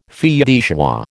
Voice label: "fille de joie", American